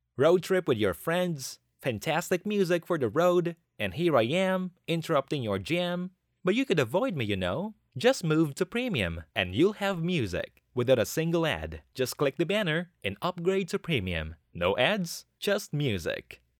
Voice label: english promos